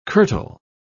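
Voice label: "curtal", British English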